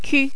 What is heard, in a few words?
"q", french